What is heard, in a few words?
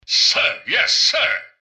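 goodbye, robot; mrgutsy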